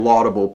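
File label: "laudable", American English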